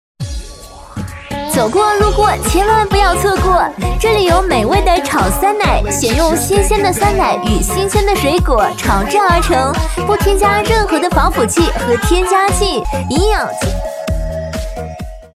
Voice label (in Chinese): 女35-激情